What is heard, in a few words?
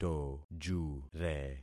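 "tojure", concatenated syllables; Affixing experiment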